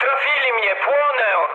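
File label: Polish on fire mood low; speech; War Thunder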